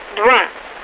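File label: Russian speech, Mi-8MT RI65; DCS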